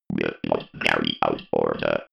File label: robot voice will not carry out order